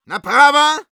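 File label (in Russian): head right, Russian; Medic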